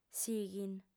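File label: "sighint", female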